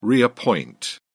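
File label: "reappoint", British English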